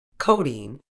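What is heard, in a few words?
"codeine", British English; ˈkəʊ.diːn; KOH-deen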